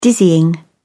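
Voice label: "dizzying", British English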